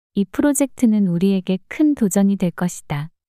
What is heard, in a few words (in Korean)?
이 프로젝트는 우리에게 큰 도전이 될 것이다 문장 여자